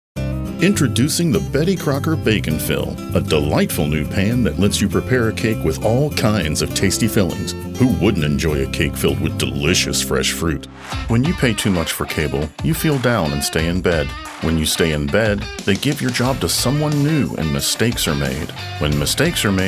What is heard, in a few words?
Commercial